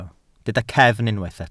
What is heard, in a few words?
"cefn", Welsh